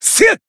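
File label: attack, Japanese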